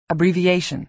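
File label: "abbreviation", American English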